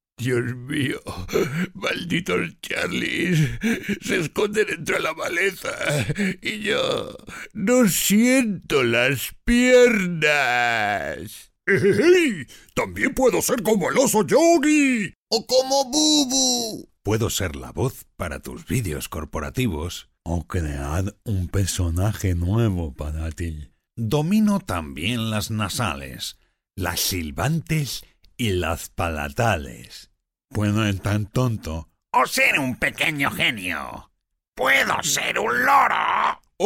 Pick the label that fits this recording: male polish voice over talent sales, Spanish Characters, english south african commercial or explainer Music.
Spanish Characters